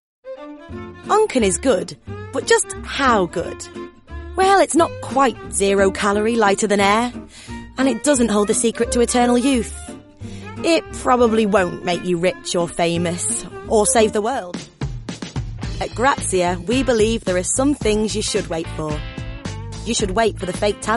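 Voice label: English Northern F Showreel; voice over; accents of england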